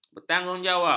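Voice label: "bertanggungjawab", Malay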